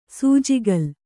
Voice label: "ಸೂಜಿಕಲ್ಲು", Kannada

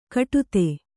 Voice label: "ಕಟುತೆ", Kannada

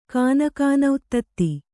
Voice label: "ಕಾನಕಾನಉತ್ತತ್ತಿ", Kannada

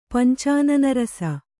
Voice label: "ಪಂಚಾನನರಸ", kannada

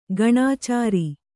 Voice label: "ಗಣಾಚಾರಿ", Kannada

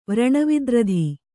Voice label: "ವ್ರಣವಿದ್ರಧಿ", kannada

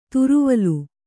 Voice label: "ತ್ರಿವಲಿ", Kannada

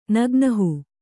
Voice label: "ನಗ್ನಹು", Kannada

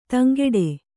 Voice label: "ದಂಕಟ್ಟು", Kannada

